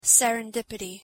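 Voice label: "serendipity", English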